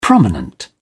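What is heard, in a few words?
"prominent", British English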